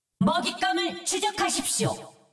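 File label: Korean; 奥丽莎; 守望先锋2; 终极技能; 먹잇감을 추적하십시오!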